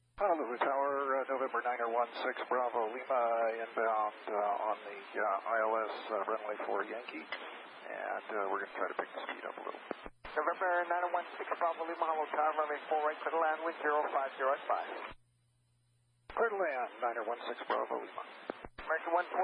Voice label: ATC VHF clear to land Honolulu; Spirit; HNL